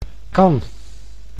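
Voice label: "kan", Dutch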